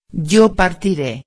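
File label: "partire", Spanish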